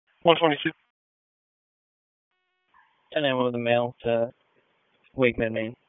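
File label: Police Dispatch, p25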